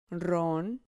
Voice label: "ron", Spanish syllable